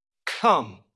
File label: Voice Acting, es